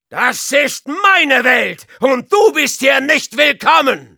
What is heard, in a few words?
taunts, de; Soldier